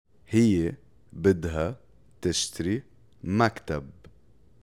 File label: Spoken Arabic phrases